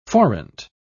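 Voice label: "forint", British English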